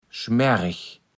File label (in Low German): "schmärreg", Platt